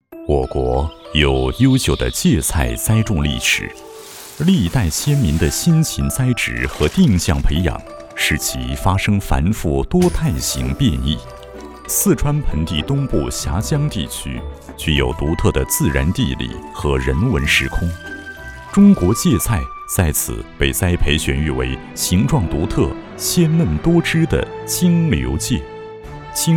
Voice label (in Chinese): 男-舌尖感